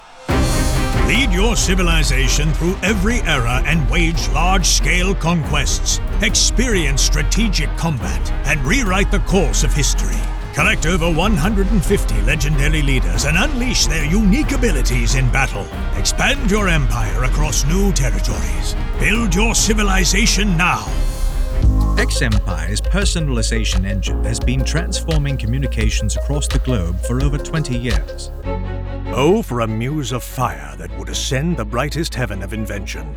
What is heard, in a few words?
British